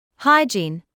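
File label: "hygiene", British English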